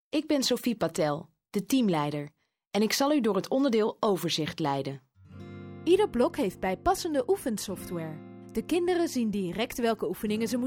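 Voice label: dutch female narration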